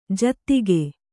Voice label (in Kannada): "ಜೊತ್ತಗೆ", Kannada